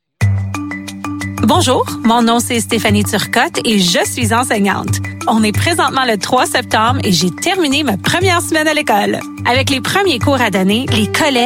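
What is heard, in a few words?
female French Canada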